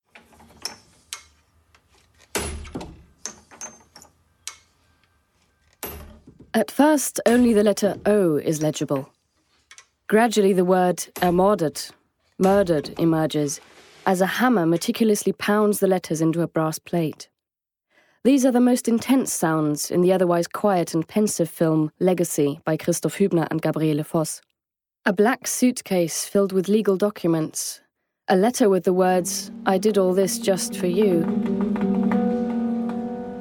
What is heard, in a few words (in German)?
Kommentar Englisch; DokLeipzig